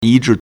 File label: "yīzhì", Chinese; 一致; adjective; unanimous; identical; Modern Chinese 现代汉语